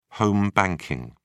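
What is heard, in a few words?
"home banking", British English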